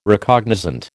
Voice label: "Recognizant", American